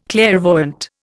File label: "clairvoyant", Indian